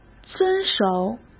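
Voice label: "遵守", Mandarin Chinese; chinese flashcards; hsk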